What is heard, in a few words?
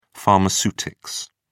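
"pharmaceutics", English, British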